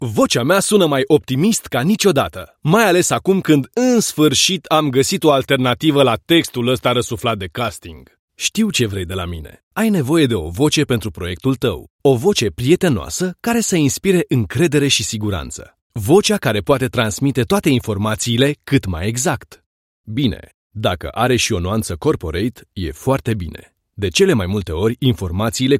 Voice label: Romanian, Male